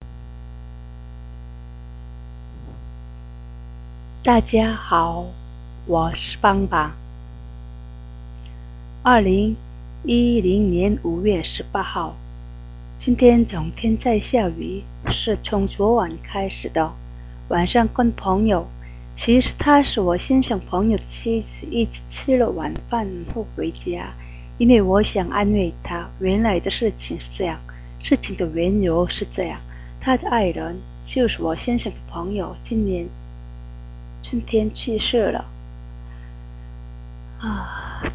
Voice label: chinese

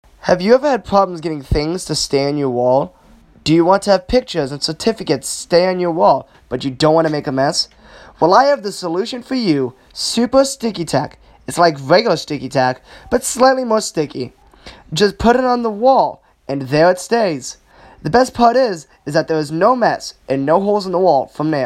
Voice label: radio commercial speech

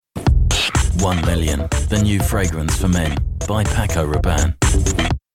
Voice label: tv commercial; paco rabbane 1million

